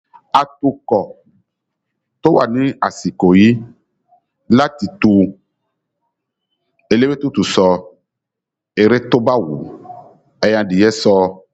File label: YORUBA